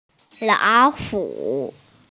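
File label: "lao hu" (tiger), Mandarin Chinese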